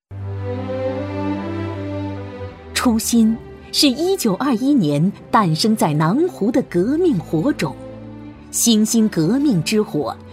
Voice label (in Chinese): 女 朗诵配音 党建; 党建专题配音